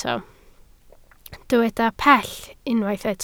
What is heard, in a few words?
"pell", Welsh